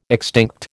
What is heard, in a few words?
"extinct", American